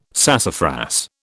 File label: "sassafras", British